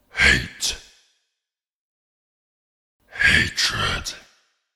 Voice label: The words ‘hate’ and ‘hatred’ spoken in deep, menacing male voice; Recorded Voice